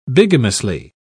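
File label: "bigamously", English, British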